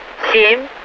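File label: Russian speech, Mi-8MT RI65; DCS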